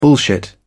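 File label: "bullshit", British English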